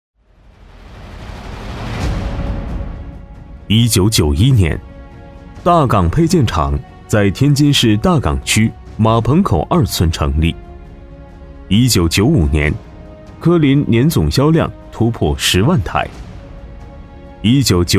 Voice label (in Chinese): 男 纪录片; 天津科林